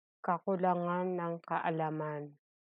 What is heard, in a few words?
Tagalog